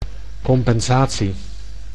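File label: "compensatie", Dutch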